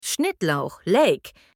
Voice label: chives in german